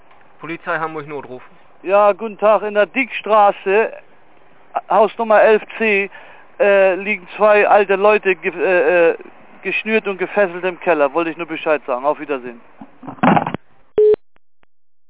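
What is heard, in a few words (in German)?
Notrufsäule Notruf; notrufsaeule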